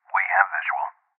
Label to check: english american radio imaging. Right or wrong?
Wrong